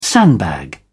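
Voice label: "sandbag", British English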